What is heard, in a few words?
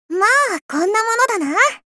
Voice victory